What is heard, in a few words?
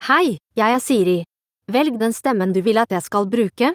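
Norwegian Bokmål, Norway; iOS 26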